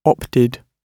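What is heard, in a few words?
"opted", British English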